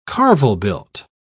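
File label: "carvel-built", English, British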